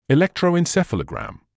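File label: "electroencephalogram", British English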